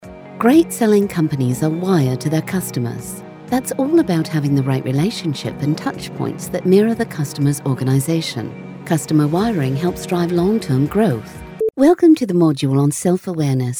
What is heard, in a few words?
english british e-learning